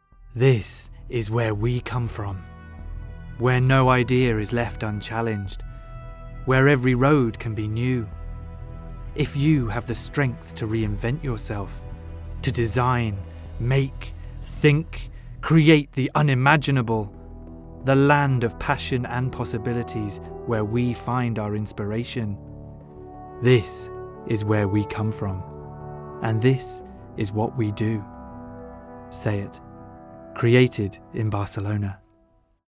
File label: male british english car comercial